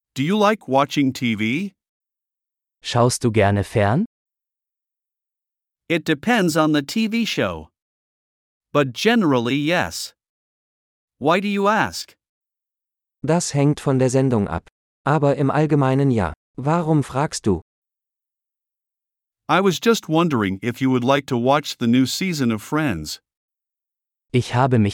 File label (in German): Dialog mit Uebersetzungen; Fernsehen